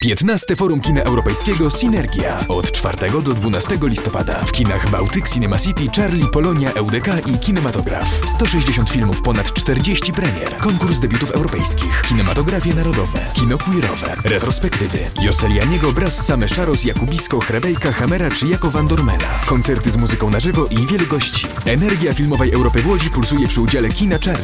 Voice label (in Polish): reklama radiowa; cinergia